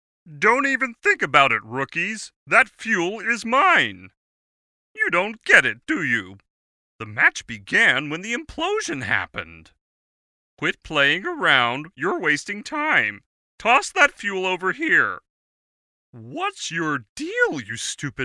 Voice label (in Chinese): 外籍美式男声角色南美部落勇士